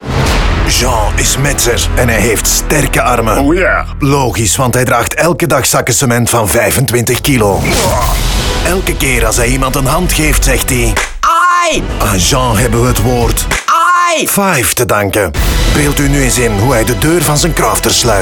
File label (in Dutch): Radio NL VO; VW; 30s